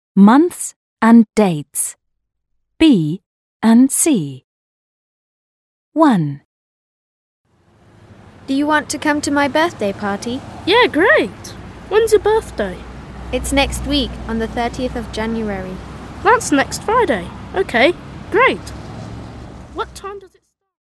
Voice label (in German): Englisch